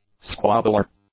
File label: "squabbler", english